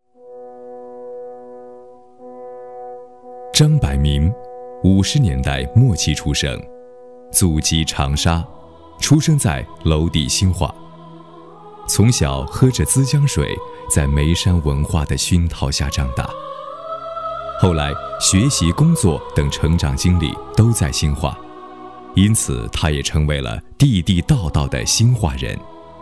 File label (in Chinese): 记录讲述人物专题